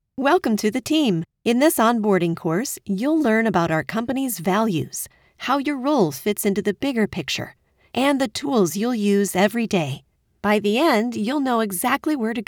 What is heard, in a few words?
Elearn Business